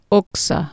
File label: "oxa", Anglo-Saxon